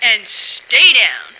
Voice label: "stay down", female